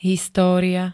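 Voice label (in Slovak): "história", Slovak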